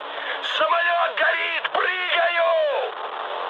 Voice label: on fire, mood high, speech ru; War Thunder